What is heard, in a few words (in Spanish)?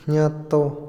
ocho, Otomí, hombre; INALI